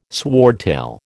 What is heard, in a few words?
"swordtail", American